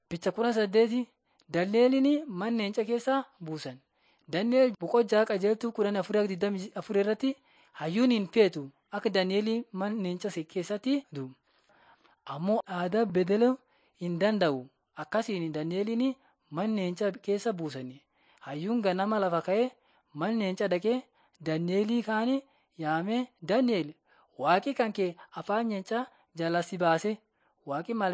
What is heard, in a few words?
borana sakuye